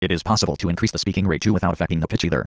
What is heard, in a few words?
cepstral fast